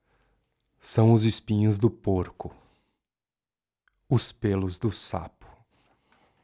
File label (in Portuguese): NARRACAO; meu tio lobisomem